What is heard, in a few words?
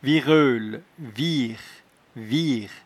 "vireule", patois jura